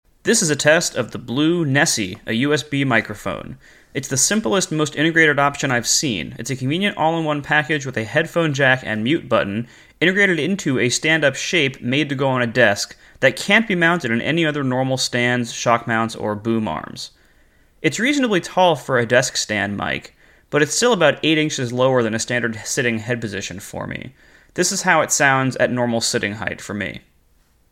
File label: Blue Nessie desk distance, microphones